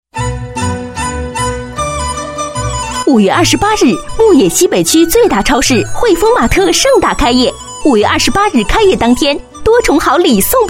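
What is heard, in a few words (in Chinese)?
女国大音-促销; 汇丰马特促销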